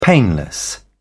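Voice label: "painless", British English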